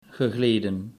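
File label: "gegleden", Dutch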